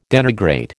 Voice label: "denigrate", American

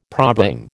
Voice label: "probang", American

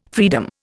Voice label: "freedom", Indian

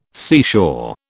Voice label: "seashore", British

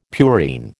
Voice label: "purine", American

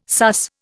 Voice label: "sus", Indian

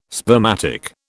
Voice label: "spermatic", British

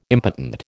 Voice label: "impotent", American

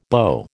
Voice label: "beau", American

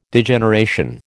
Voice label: "Degeneration", American

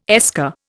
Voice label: "esker", Indian

